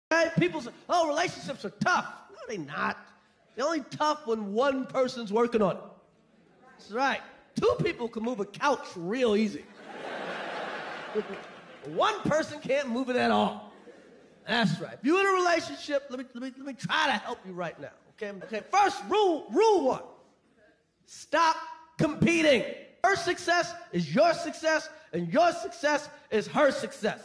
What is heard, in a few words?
Motivational Speech